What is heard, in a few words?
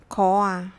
kraa casual, khmer